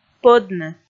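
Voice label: "пӧдны", Komi, female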